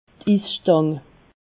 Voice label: "isstang", alsace

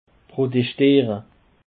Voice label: "broteschdiere", Alsace

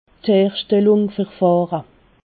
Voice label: "herschtellungsverfahre", alsace

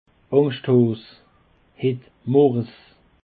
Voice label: "angschthaas", Alsace

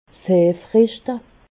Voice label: "heefrischte", alsace

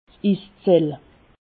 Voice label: "iszell", alsace

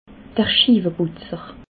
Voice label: "schieweputzer", alsace

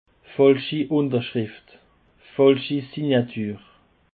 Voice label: "falschi", Alsace

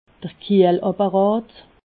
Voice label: "kiehlapparat", alsace

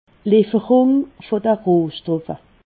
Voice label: "lifferung", alsace

